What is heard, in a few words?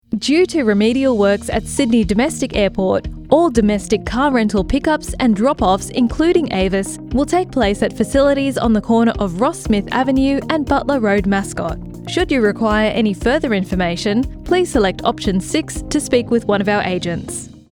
IVR, On hold phone message; Sydney Airport